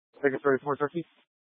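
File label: Incident Tac 3, Scanner audio recording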